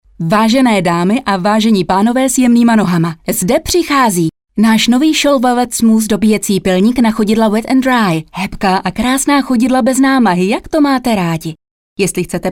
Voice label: tv spot women